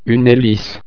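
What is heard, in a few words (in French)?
"helice", French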